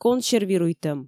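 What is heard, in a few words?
"консервируйтӧм", Komi, female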